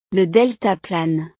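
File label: "le deltaplane", French; deportes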